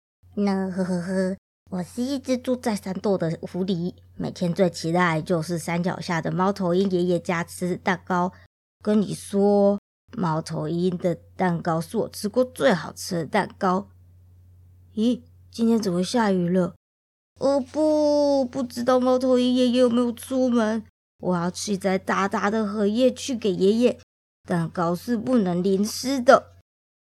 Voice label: children book